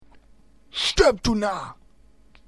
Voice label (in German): Narr